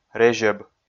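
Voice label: "резьӧб", Komi, male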